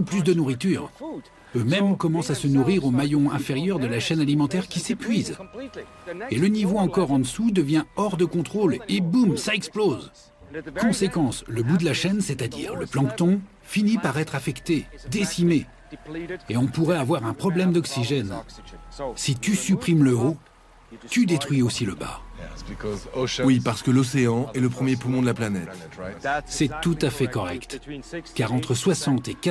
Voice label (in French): Voice over requins chaine